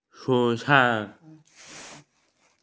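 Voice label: "vegetables", Mandarin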